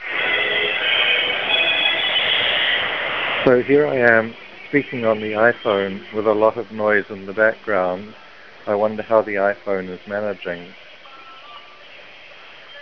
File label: jawbone noisey, bluetooth